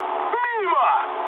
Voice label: bomb failure, mood med, speech ru; War Thunder

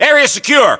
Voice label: area secure, English, US

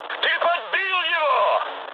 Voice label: player killed enemy, mood high, speech ru

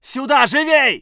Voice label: voice sov infantry

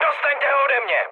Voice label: aircraft damaged, speech cze, mood high

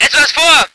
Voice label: voice ger vehicle

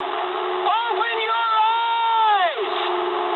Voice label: eng player friendly fire mood high, speech eng

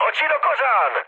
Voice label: player friendly fire, speech cze, mood high

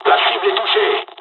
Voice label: japanese first wave, dialogs french; War Thunder

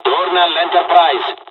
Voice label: evac, dialogs italian; midway us; War Thunder

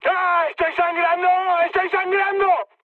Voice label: damaged pilot, mood high, speech; War Thunder

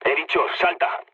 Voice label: bailout, mood low, speech; War Thunder

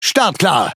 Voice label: Speech Pilots Torpedo Ready, DE; World Of Warships

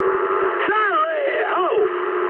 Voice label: eng enemy detected mood high, speech eng; War Thunder

